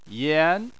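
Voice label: "yan", Chinese, second tone; Chinese Surnames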